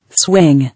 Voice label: "Swing", English